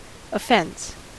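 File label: "offense", American English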